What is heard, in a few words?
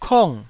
"kong4", Taiwanese syllable